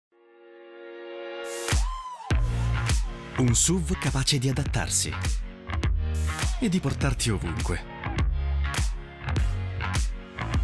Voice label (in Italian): amichevole istituzionale; skoda karoq